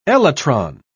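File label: "elytron", British English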